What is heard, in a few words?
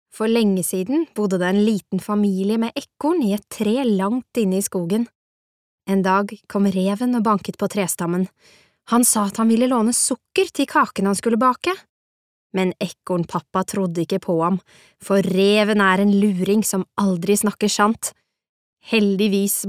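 Norwegian childrens book, female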